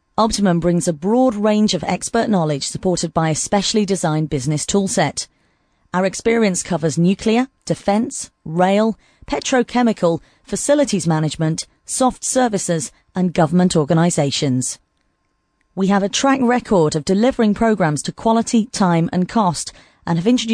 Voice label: corporate

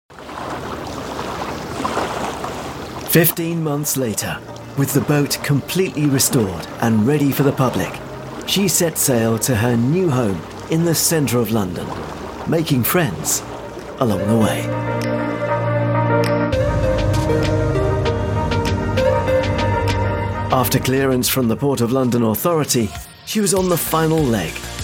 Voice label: narration

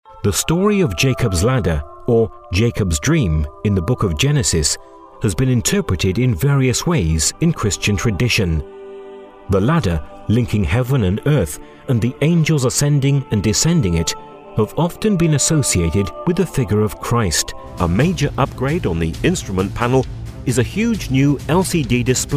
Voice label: documentary

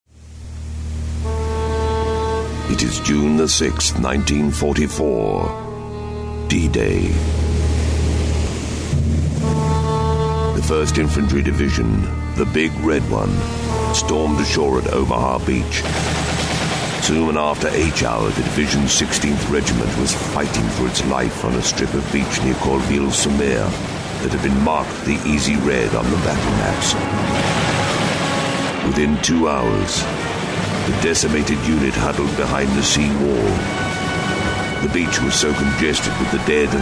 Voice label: narration

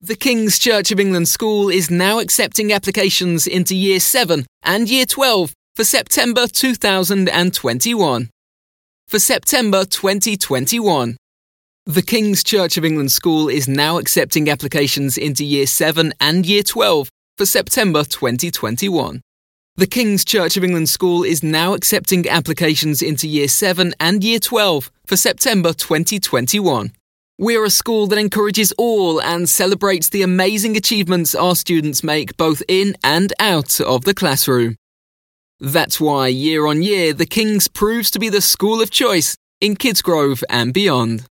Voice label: young northern corporate

